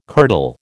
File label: "kirtle", American